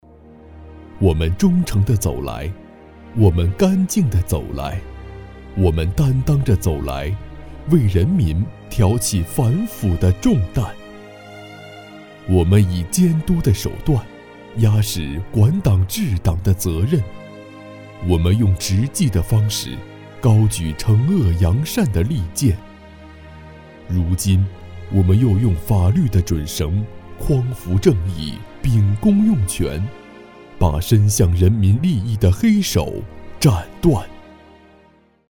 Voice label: 男 旁白 纪检监察

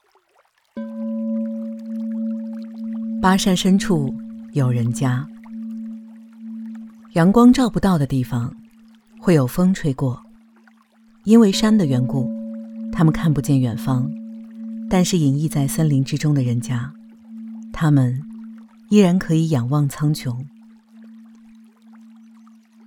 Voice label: 女 纪录片 平静客观 不带主观情绪

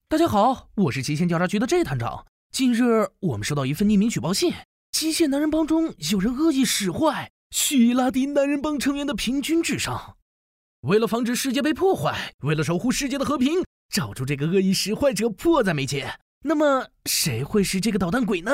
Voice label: 男 综艺风格; 极限挑战

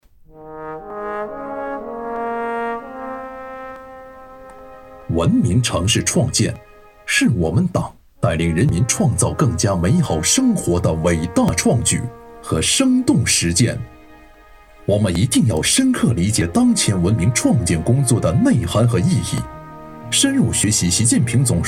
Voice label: 男, 汇报专题; 创建国家卫生城市

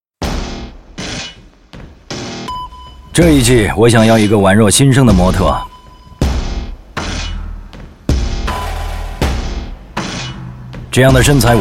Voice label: 男 广告 国语男声配音

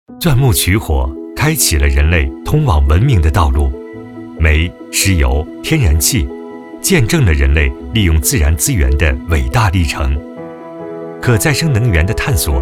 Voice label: 男 宣传片科技 新能源

